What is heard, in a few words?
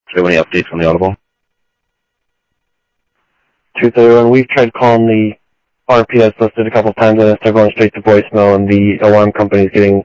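Sheriff West County, scanner audio trunk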